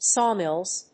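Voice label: "sawmills", English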